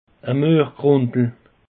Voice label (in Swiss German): "muergrundel", alsace; peche